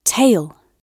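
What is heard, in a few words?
"tail", British English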